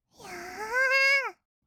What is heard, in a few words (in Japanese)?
"やーーーーー", kawaii voice; 1200 kawaii voice!!; 色々なワンショット